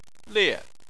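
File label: "lie", Chinese, fourth tone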